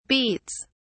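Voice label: "beats", British English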